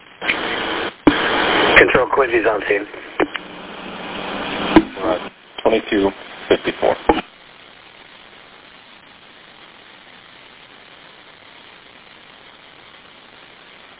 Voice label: Police Radio